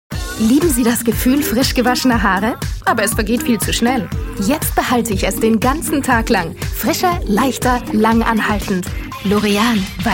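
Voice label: DE General, German; GoLocalise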